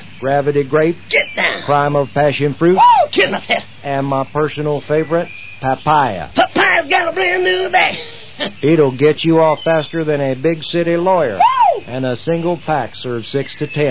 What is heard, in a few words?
Commercials bartles